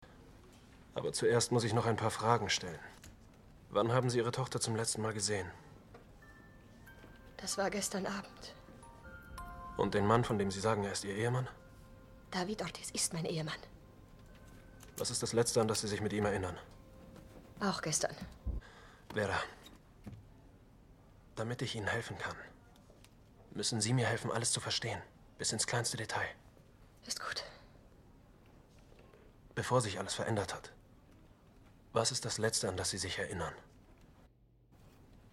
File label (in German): Synchron